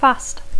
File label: "fast", English; adjectiu; ràpid; veloç; dejuni